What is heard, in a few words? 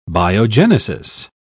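"biogenesis", English, uk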